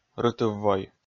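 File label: "рытыв-вой", Komi, male; kpv audio dict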